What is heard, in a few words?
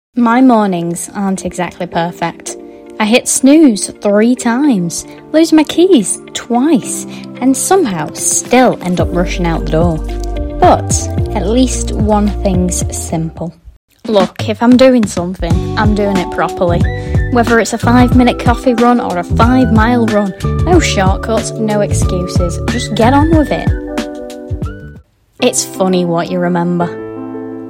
Voiceover reel